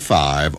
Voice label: "five", male; Voice; numbers and letters

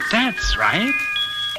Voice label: that's right male

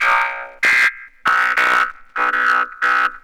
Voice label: robot, gibbrish; Voice

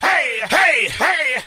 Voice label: "hey hey hey", voice; ooh ahh

